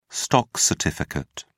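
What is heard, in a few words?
"stock certificate", English, British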